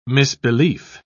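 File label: "misbelief", British English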